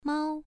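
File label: "mao", tone 1, pronunciation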